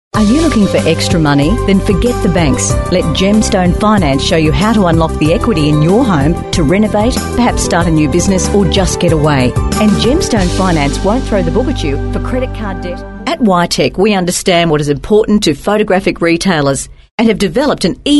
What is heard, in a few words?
female talent corporate